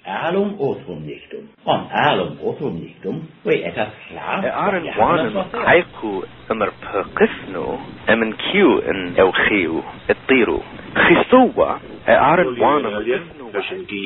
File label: samples ancient langs